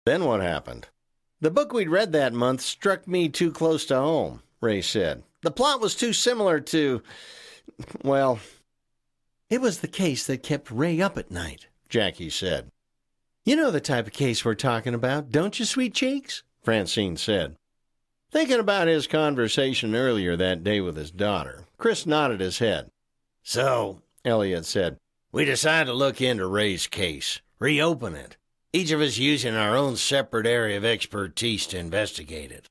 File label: Audibook Sample; ICE